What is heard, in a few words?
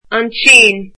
"China", Irish